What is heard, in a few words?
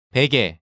"baege", Korean